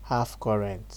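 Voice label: "half current", Nigerian Pidgin, male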